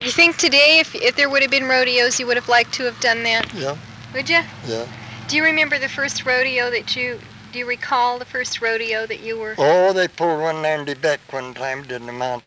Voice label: Oral history with 88 year old white male Fruita Colorado, american english dialect